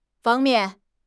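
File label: "fang1 mian4", Mandarin Chinese; HSK4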